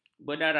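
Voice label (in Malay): "bedara", Malay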